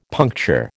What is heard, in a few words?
"puncture", American